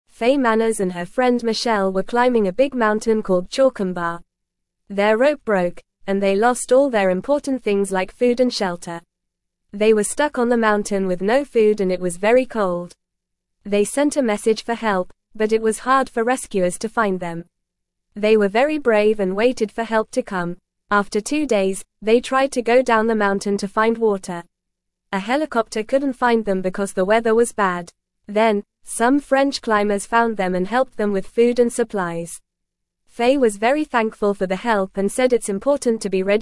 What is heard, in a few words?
English Beginner FAST Reading; Fay and Michelle Get Lost on Mountain Get Help